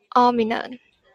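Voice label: "aluminum", Taiwan, female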